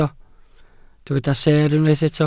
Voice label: "seer", Welsh

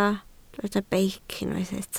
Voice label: "beic", Welsh

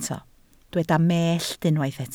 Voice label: "mellt", Welsh

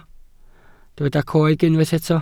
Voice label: "coeg", Welsh

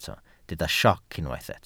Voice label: "sioc", Welsh

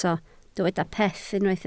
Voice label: "peth", Welsh

